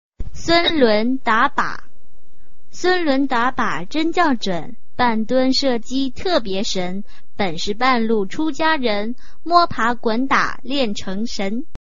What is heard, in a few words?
chinese tongue twister